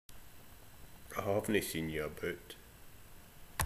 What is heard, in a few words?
"Aboot", slang; Ah huvnae seen ye aboot